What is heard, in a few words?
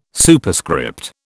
"Superscript", British